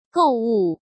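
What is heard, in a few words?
"购物" Mandarin Chinese; chinese-flashcards; hsk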